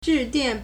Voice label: "zhi4 dian4", Chinese